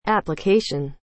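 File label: "Application", English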